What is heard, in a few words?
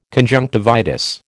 "conjunctivitis", American